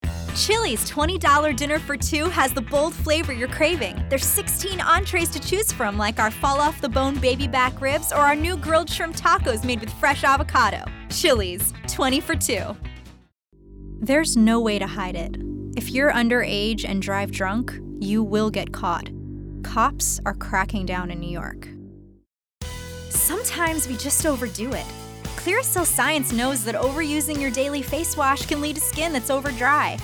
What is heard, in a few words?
Commercial